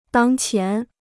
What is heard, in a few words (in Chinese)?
"当前", Chinese